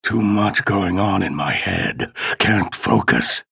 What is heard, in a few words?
chatter negative; ranger; respawn